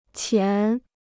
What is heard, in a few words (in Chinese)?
"前", Chinese